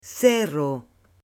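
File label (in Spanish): "CERRO", Spanish, female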